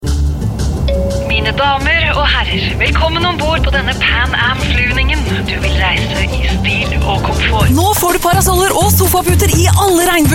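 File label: Norwegian, General; GoLocalise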